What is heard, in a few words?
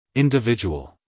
"individual" US English, male; everyone-can-use-english